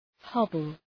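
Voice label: "hobble", English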